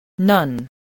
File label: "nun", voice, spelling